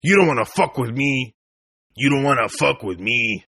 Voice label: Tough guy English, Tough Hispanic Male Saying; "You Don'T Wanna F With Me!" In English; Toughguy Fwm English; Attitude Sound Effects